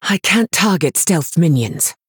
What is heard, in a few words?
Female High Elf ERROR STEALTH